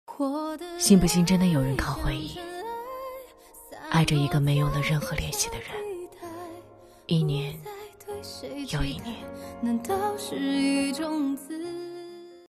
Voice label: 伤感情感语录

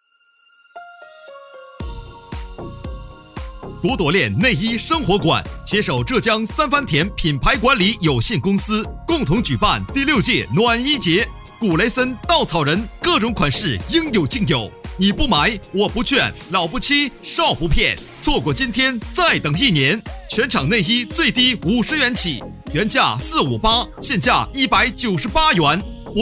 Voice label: 促销

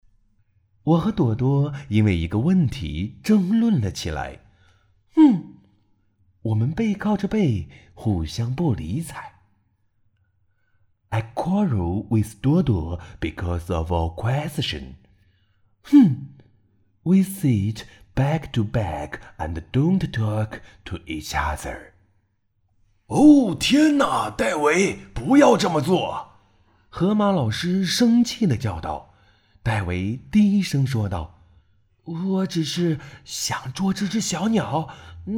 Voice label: 儿童双语绘本

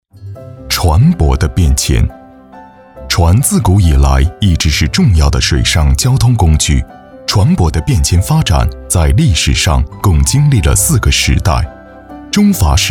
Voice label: 专题大气平稳讲述; 舟筏时代

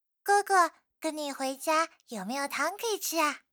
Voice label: 小女孩

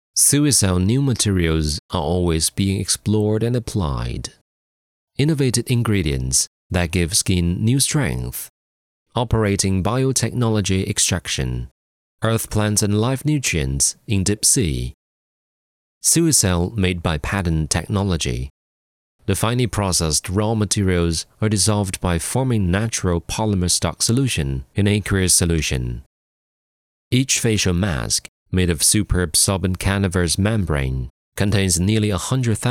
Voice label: 英语解说视频英语; Suicel

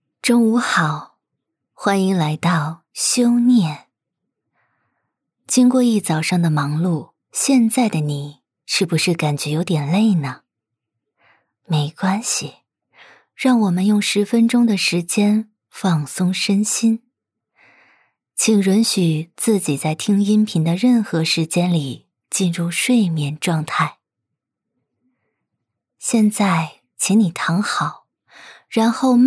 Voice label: 冥想女

